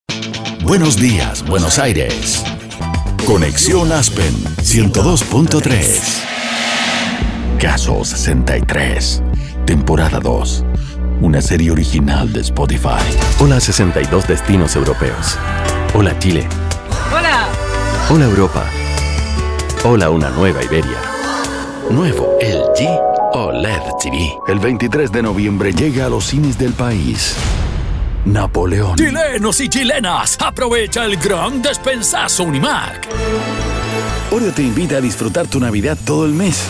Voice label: spanish latin american commercial; Chile; actor y locutor Latino Neutro